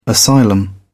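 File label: "asylum", British English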